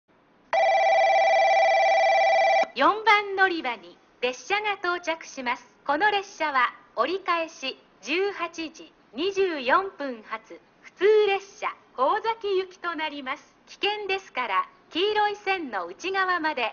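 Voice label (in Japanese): 4番のりば接近放送, 中津駅; 折り返し・普通ワンマン 幸崎行き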